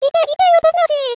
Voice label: run away, voice commands; svencoop; Miku